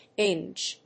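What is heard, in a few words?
"Dinge", English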